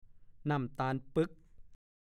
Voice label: "น่ำตาคลอหน่วย", ภาษาโคราช; 1. น้ำตาคลอ

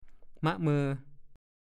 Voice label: "มะเมอ", ภาษาโคราช; ละเมอ

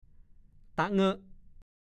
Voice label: ตะเง่อะ, ภาษาโคราช

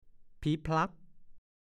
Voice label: "ผีผลั๊ก", ภาษาโคราช

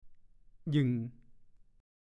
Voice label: "หยึ่ง", ภาษาโคราช; ขึง ตึง

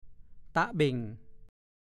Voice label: ต่ะเบ่ง, ภาษาโคราช

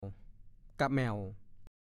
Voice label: "กะแหม่ว", ภาษาโคราช; แขม่ว ผ่อนลมหายใจให้ท้องยุบ

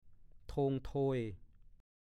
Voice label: "โทงโทย", ภาษาโคราช

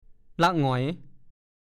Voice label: "ล่ะหงอย", ภาษาโคราช; เป็นทิวแถว สลอน เช่น ยืนล่ะหงอย

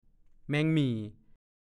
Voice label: "แมงหมี่", ภาษาโคราช; แมลงหวี่

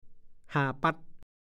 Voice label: "ห่าปั๊ด", ภาษาโคราช; คำด่าทำนองว่าห่ากิน ห่าฟั่ด ก็พูด

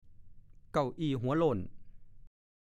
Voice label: "เก้าอี้หัวโล่น", ภาษาโคราช; เก้าอี้ไม่มีพนักพิง

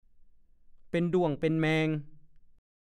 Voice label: "เป็นเฉ๊าะเป็นฉ่อง", ภาษาโคราช

